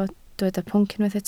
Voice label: "pwnc", Welsh

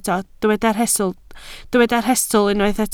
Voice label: "rhestl", Welsh

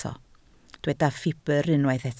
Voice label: "ffibr", Welsh

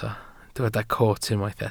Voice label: "coot", Welsh

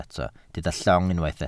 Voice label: "llong", welsh

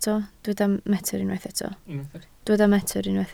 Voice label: "metr", Welsh

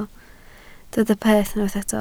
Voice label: "peth", Welsh

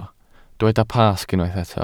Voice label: "Pasg", Welsh

